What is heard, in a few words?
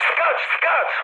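bailout, mood high, Polish speech; War Thunder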